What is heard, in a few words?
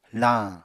"lañ", Apatani